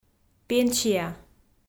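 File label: "пенсия", Komi, female; audio dict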